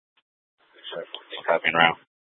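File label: police dispatch, scanner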